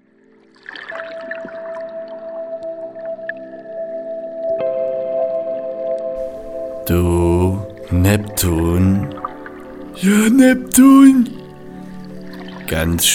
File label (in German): Voice Demo Männer Showtanzgruppe